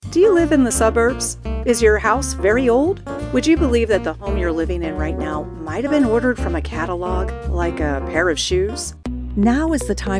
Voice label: Commercial